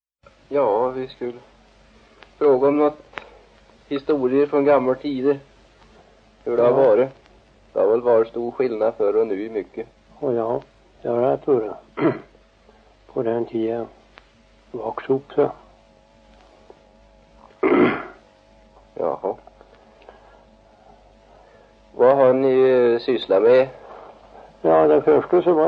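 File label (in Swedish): Sillerud Värmland, dialekter; Folkminnen; Svenska sagor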